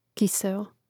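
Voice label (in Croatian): "kiseo", Hrvatski; Mrežnik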